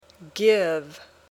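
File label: "give", English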